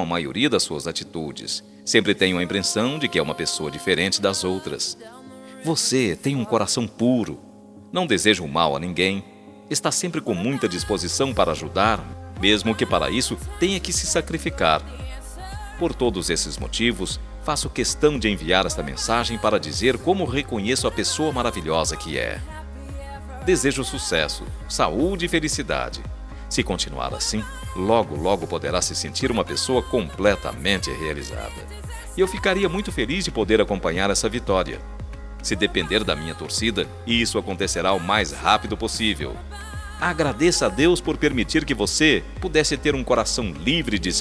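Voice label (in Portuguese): ANIV NETO male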